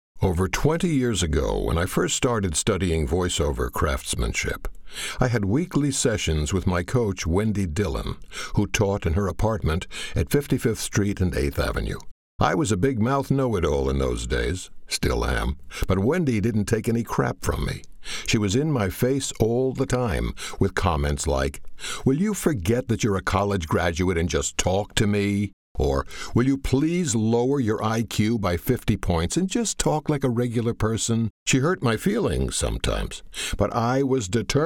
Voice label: medical narration